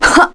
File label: get, kr, voices; heroes; Tanya; kings raid